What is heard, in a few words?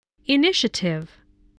"initiative", spelling voice